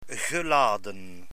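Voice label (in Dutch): "geladen", Dutch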